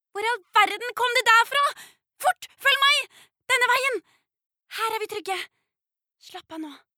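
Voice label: no dub character, Norwegian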